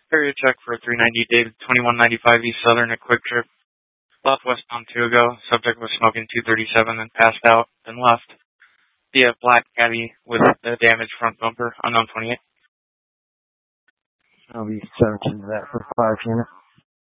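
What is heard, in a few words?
Tempe police department, scanner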